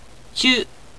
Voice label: "chu", japanese